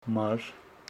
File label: Homshetsi mother